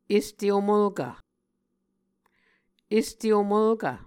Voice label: "este omvlkv", Muscogee

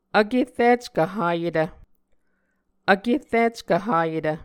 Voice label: "vkerrickv hayetv", Muscogee

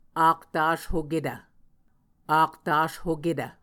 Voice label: "aktashoketv", muscogee; Mvskoke Words with Voicing Consonants; Martin, Jack B., and Margaret McKane Mauldin. 2023. A Dictionary of Creek/Muskogee; SIL International

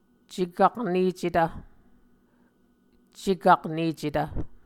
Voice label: "cekaknecetv", muscogee; Mvskoke Words with Voicing Consonants; A Dictionary of Creek/Muskogee; SIL International; Onvkv Ohhvyayices

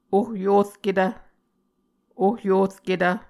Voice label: "ohyurketv", Muscogee

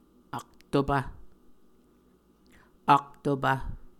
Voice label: "aktopv", muscogee

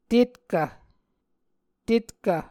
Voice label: "tetkv", muscogee

